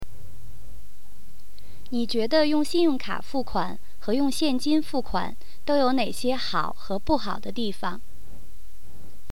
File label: Mandarin/Putonghua, talking about the means to pay; 普通话提示